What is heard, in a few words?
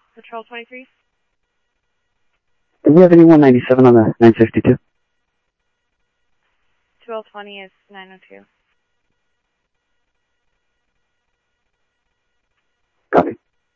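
police scanner radio, Scottsdale Police; ThompsonPeakHigh; SCTSDLE PD - PD2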